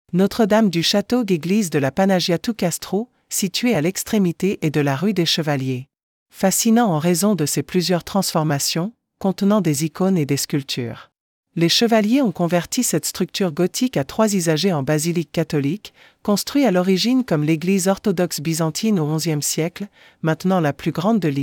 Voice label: French; Our Lady of the Castle Church Panagia tou Kastrou